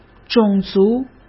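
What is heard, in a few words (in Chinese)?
"种族", Mandarin Chinese; hsk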